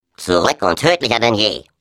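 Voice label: Defective Turret turret defect return, German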